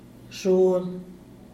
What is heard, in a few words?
"tuna", "xhual"